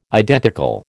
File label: "identical", American